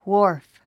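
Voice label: "wharf", English word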